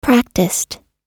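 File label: "practised", American English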